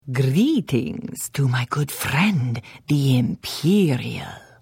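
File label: Hello, Khajiit female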